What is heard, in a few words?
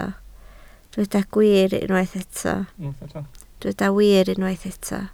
"wir", Welsh